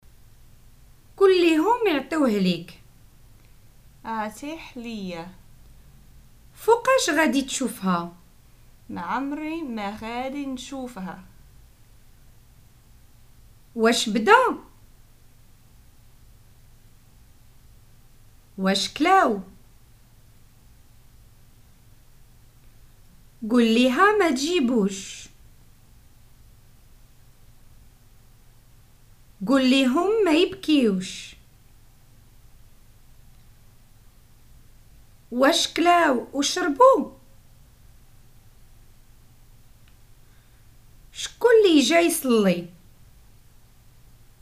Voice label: prompts, Moroccan Arabic